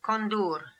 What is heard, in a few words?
"Kondoor", pronounciations; Fourth Way; Beelzebub